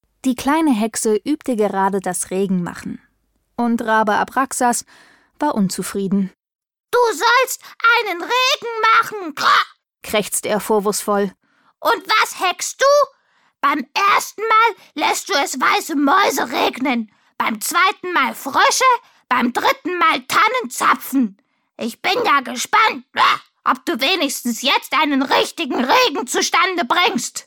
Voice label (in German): Kinderhoerbuch; LOFT VOICES